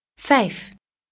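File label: "fijf", Dutch, female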